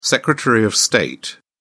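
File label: "secretary of state", British English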